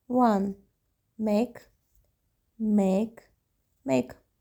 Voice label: "1 mek", Armenian